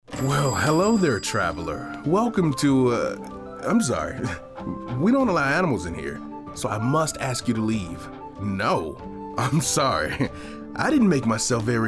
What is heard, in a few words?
Character